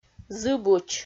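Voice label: "зыбуч", Komi, female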